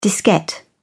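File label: "diskette", English, British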